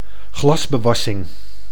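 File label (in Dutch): "glasbewassing", Dutch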